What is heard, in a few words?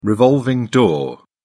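"revolving door", British English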